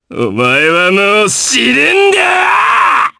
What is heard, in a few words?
Vox Skill jp; Ezekiel; kingsraid